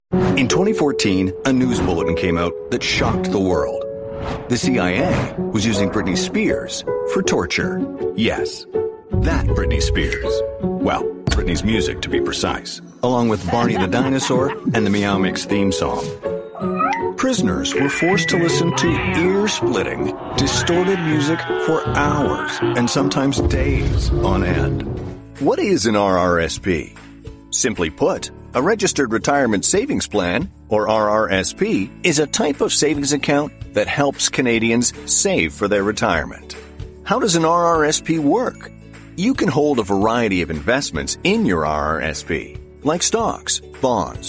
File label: Narration